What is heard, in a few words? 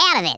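"go away", Redneck, male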